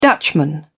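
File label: "dutchman", British English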